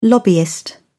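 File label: "lobbyist", English, British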